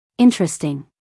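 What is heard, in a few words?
"interesting", American English, female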